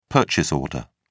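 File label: "purchase order", British English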